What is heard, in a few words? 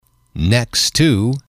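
"next to", English, male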